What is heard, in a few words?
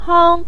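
"hang" neutral tone, Mandarin Chinese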